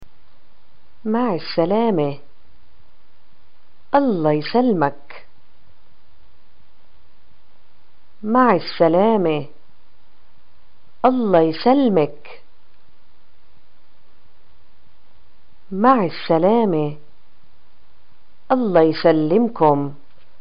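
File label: "greeting goodbye responses", Palestinian Arabic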